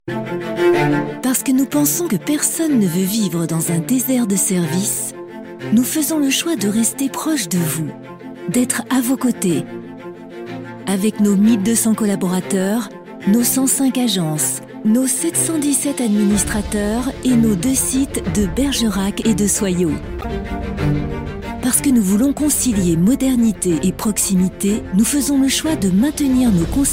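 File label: female, French, commercial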